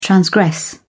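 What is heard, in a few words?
"transgress", British English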